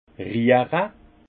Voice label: "riere", alsace; vin; biner

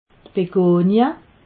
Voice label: "begonia", alsace

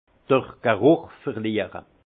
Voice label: "gruch verliere", alsace; vin; anosmie

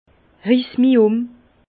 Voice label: "rissmium", Bas Rhin; vin

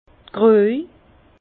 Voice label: "kruej", Bas Rhin; vin; crucheterre cuite

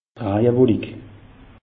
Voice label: "raje wolik", alsace; meteo

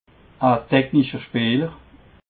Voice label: "technischer speeler", alsace

